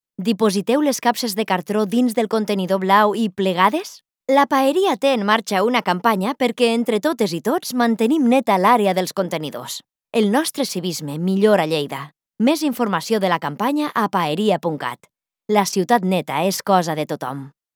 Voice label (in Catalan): CAT LLEIDATÀ; PAERIA CARTRONS